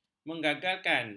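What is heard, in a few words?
"menggagalkan", Malay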